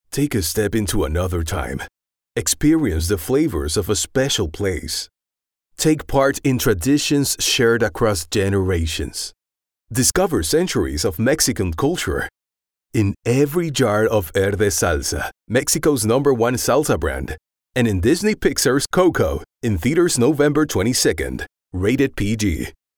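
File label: male, accented English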